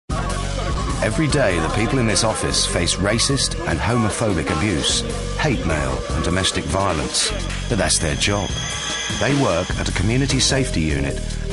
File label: SINCERE SERIOUS INFORMATIVE; MET POLICE